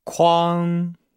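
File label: "kuang", Chinese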